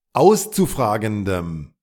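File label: "auszufragendem", German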